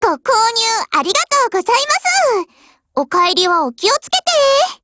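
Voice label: WIN Japanese, Voice; GF Alarm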